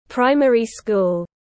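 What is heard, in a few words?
"Primary-school", English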